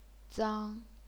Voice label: "zang1", Chinese pinyin

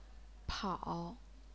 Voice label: "pao3", chinese pinyin

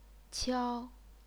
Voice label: "qiao1", chinese pinyin

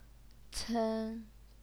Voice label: "cen1", Chinese pinyin

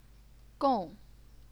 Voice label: "gong4", chinese pinyin

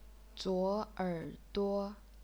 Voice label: zuo3 er3 duo1, chinese pinyin

